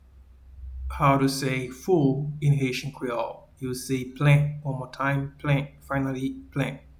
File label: "Full" in Haitian Creole, "Plen"